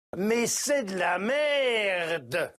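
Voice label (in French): "merde", French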